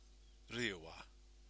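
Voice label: "riua", Maori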